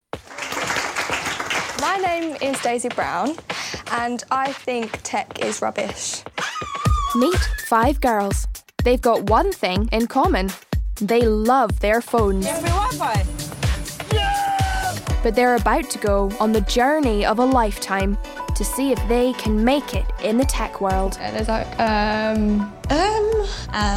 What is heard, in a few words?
documentary